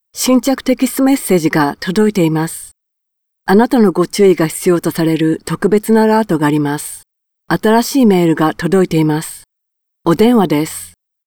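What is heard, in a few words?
female Japanese, диктор из Токио, коренной носитель японского языка, женский голос; Озвучка на японском языке, женский голос диктора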